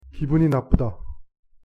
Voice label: "기분이 나쁘다" Korean; pronunciation

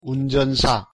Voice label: "운전사" Korean; pronunciation

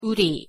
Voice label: "우리" Korean; pronunciation

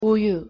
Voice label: "우유" Korean; pronunciation

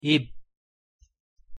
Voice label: "입" Korean; pronunciation

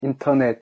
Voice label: "인터넷" Korean; pronunciation

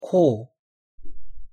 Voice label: "코" Korean; pronunciation

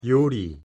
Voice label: "요리" Korean; pronunciation

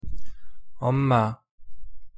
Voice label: "엄마" Korean; pronunciation

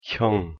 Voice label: "형" Korean; pronunciation

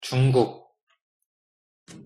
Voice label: "중국" Korean; pronunciation

